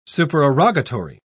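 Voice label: "supererogatory", English, uk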